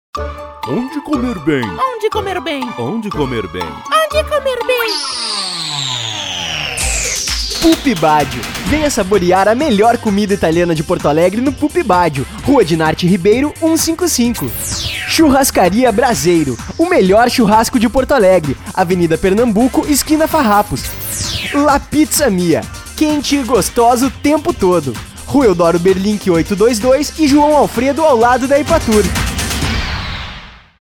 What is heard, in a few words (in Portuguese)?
Spot